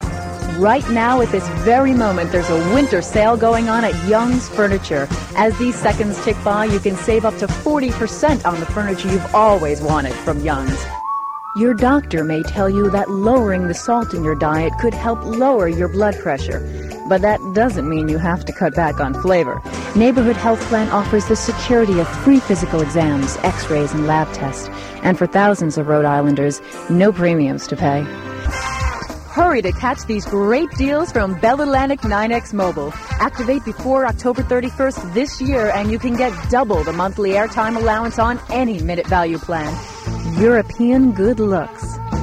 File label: Commercial, Female voices